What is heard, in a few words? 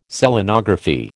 "Selenography", American